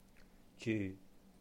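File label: "jyuh", Cantonese; live; 住; zyu6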